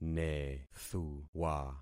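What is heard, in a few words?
"nethuwa", concatenated syllables; Affixing experiment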